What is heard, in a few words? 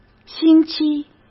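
"星期", Mandarin Chinese; chinese-flashcards; hsk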